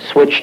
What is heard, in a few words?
LoFi Words, LoFi Vocals